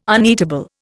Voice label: "uneatable", Indian

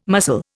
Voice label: "mussel", Indian

